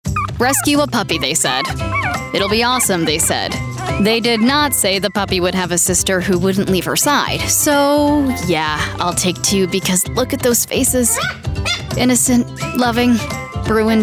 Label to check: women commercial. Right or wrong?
Right